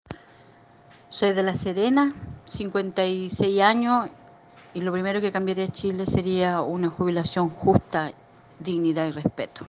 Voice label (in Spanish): adulto mayor